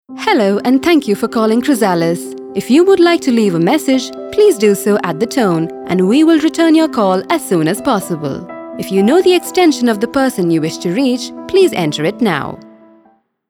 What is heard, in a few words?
IVR; Chrysalis; IVR Message